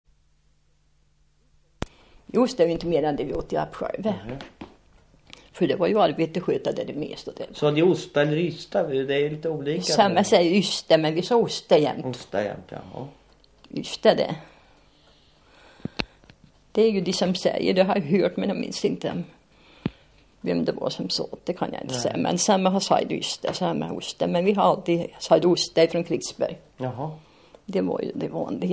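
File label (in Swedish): Godegård Östergötland, dialekter; Folkminnen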